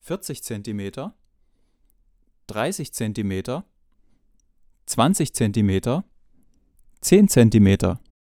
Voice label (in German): Niere Nahbesprechungseffekt; akg c314